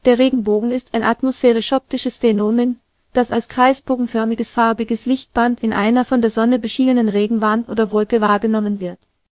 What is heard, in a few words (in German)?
speaker, de DE; piper-voices